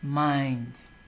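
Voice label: "mind", Nigerian Pidgin, male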